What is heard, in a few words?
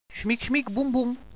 defusing bomb now, radio bot